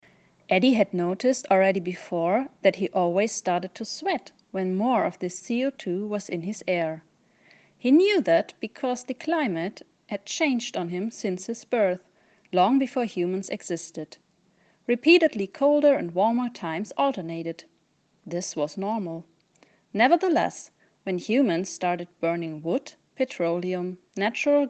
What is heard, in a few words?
English; wissenschaftliche kurzgeschichten